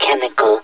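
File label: "chemical", male; hl1mp; XDM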